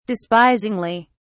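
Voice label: "despisingly", British English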